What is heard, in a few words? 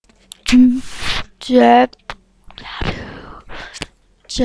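"jab", spoken word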